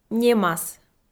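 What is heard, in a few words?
"немас", Komi, female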